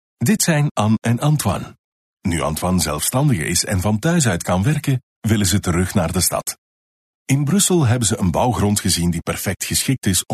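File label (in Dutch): Flemish Male Sample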